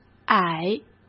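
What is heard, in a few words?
"矮", Mandarin Chinese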